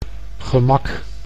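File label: "gemak", Dutch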